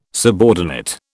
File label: "subordinate", British